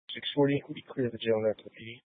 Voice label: Police Dispatch, scanner; Police 1 Dispatch